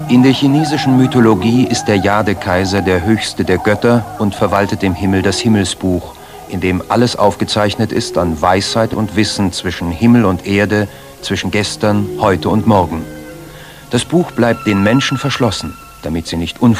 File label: narrator